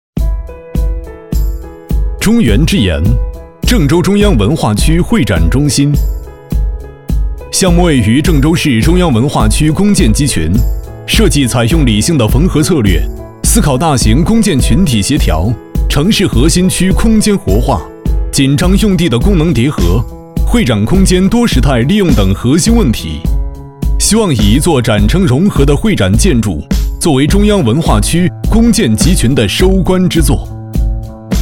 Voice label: 男 专题 汇报会展多媒体

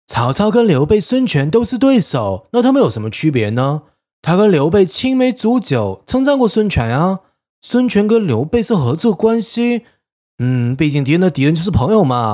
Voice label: 男, 台湾腔

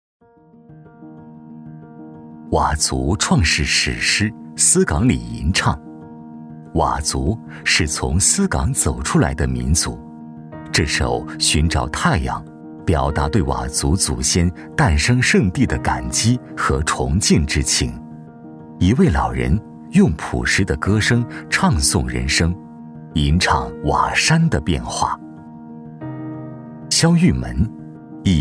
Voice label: 男 纪录片 非遗; 佤族

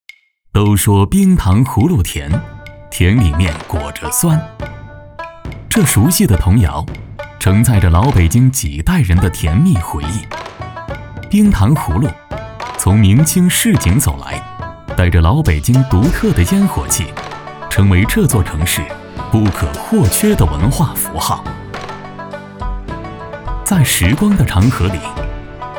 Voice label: 男 纪录片; 京韵糖影; 一串冰糖葫芦的千年传奇

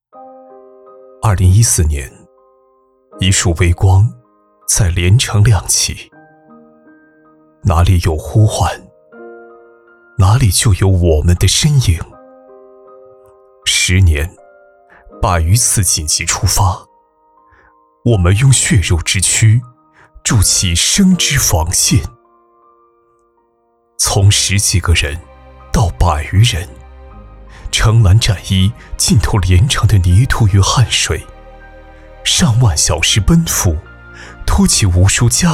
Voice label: 男 走心 情感

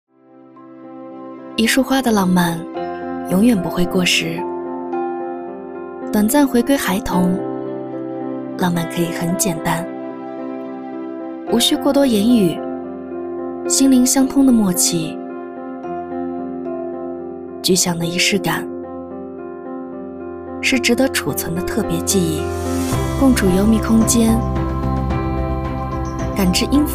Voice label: 女 走心 甜美威飒 情人节

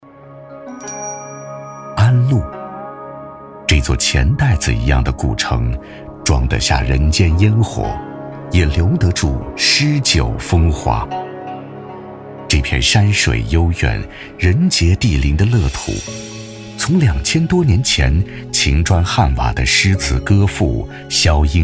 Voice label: 男 景区专题 古风意蕴; 三台八景

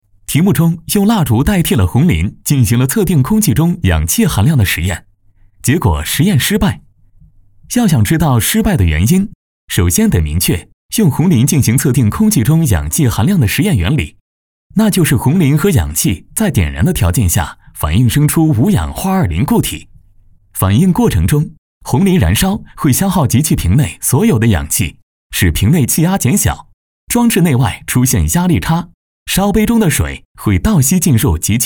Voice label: 男 课件解说

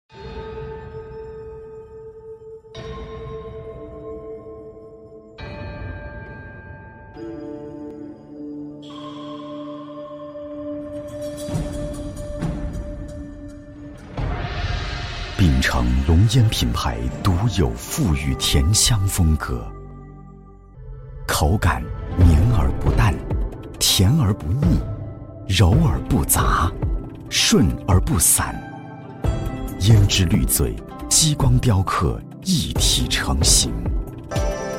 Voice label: 男 宣传片; 龙烟传琦老巴夺

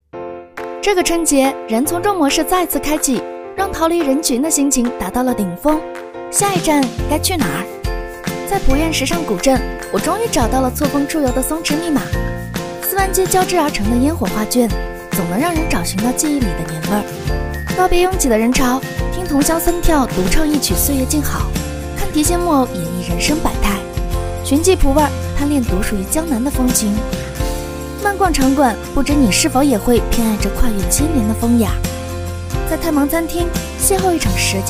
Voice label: 女 活泼欢快 时尚古镇; 濮院

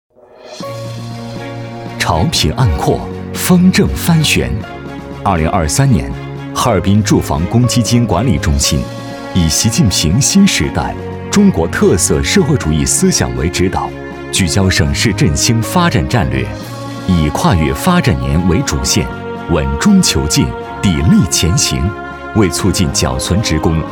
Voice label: 男 政府; 哈尔滨住房公积金

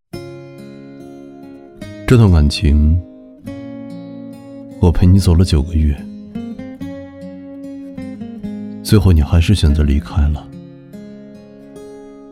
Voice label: 男 旁白 走心告白

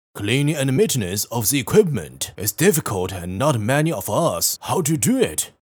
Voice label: 男 英语角色 經理

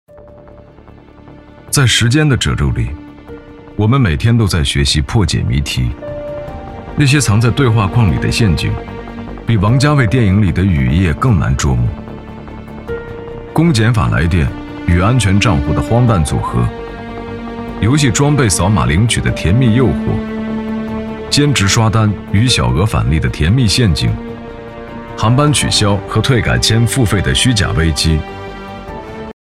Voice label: 男, 专题; 在时间的褶皱里

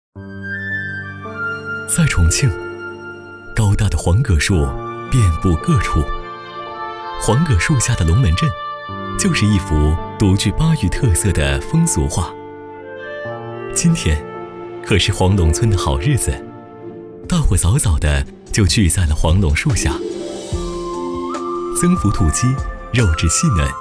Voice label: 男 舌尖 娓娓道来

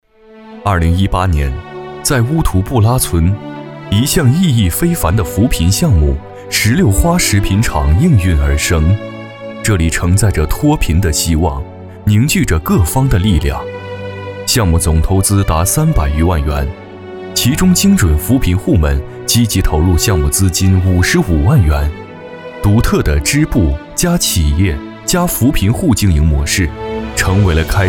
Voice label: 男 纪录片; 乌图布拉村

